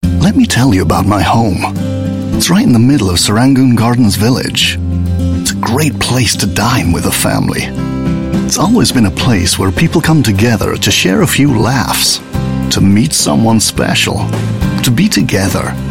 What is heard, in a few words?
UK English; My Village